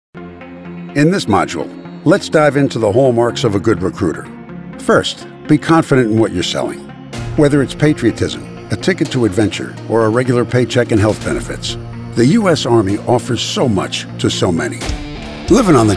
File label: Narration; Home studio in the NY tri state area